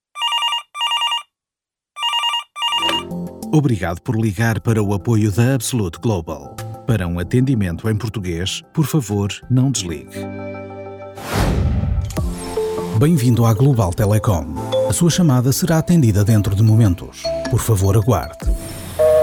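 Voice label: Portuguese European IVR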